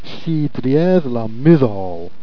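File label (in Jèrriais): "j'sis d'rié la muthalle", Jèrriais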